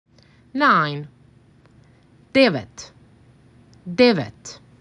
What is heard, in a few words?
MAC 6, macedonian phrasebook